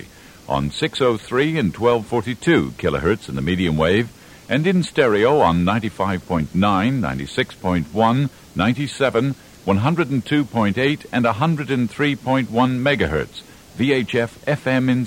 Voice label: over night off air announcement, radio; Invicta Radio